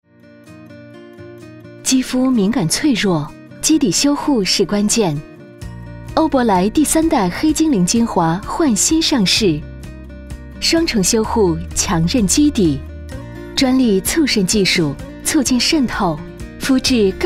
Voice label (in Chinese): 广告化妆品清新; 欧珀莱